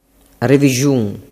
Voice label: "revixun", dialettu

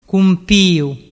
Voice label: "cumpiu", dialettu

